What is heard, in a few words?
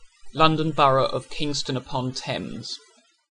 Kingston, uk; LBKingston